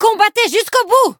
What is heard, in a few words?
hit fr; Cookie Run